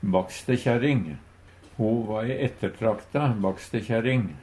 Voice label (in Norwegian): "bakstekjærring", Numedalsmål; Substantiv hokjønn; Ho va ei ettertrakta bakstekjærring